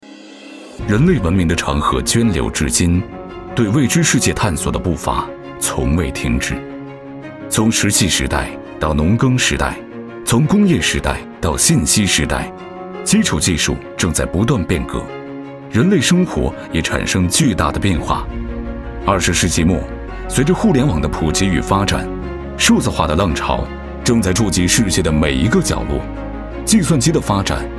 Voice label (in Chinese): 科技感; 趣链